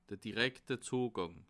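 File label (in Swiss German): "direkte", Alsatian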